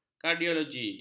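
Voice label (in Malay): "kardiologi", Malay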